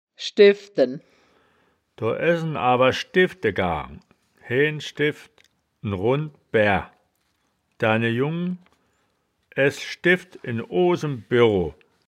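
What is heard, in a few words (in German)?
"schdefden", wittlicher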